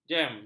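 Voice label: "jem", Malay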